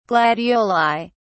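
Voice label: "gladioli", English, British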